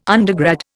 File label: "undergrad", Indian